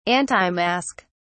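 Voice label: "antimask", British English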